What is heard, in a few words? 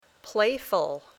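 "playful", English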